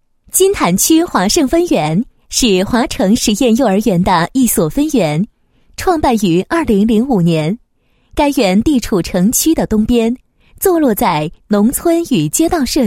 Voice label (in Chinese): 女 学校; 华胜幼儿园公共环境的打造